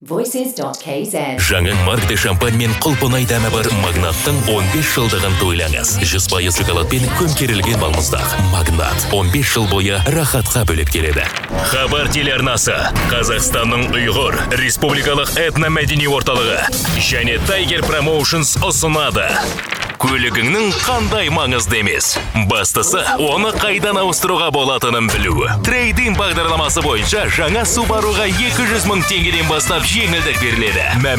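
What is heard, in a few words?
Kazakh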